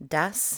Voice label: "das" english